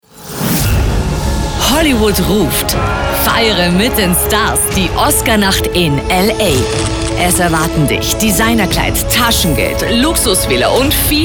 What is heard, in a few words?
SPOT; Syoss; Oscar 2019